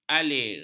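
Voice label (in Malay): "alir", Malay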